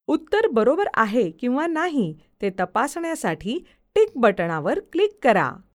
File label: Click Check VO, Marathi